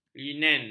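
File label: "linen", Malay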